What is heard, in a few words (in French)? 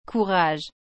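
"courage", French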